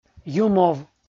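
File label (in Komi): "юмов" Komi, male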